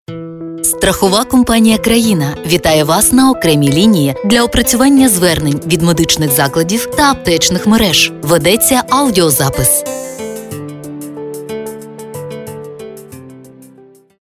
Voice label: polish ivr